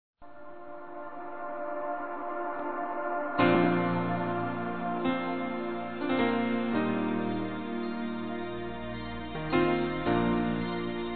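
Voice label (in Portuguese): RECONCILIAÇÃO Masculino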